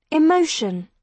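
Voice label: "emotion", British English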